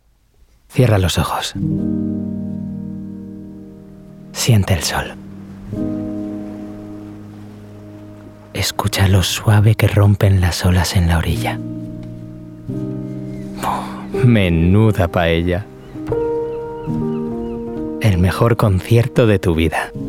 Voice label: Commercial, Bright, Light, Warm, Spanish; Cala Saona